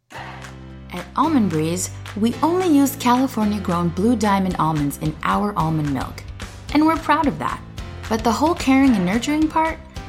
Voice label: Commercial